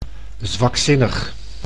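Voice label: "zwakzinnig", Dutch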